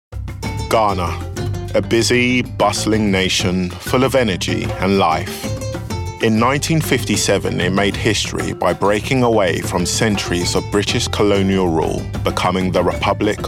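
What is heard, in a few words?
documentary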